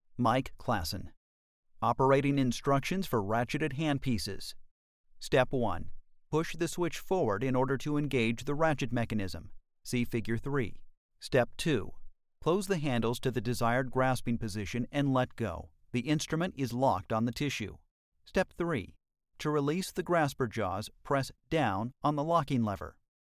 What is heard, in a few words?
Instructional